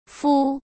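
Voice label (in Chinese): "敷" Mandarin Chinese; hsk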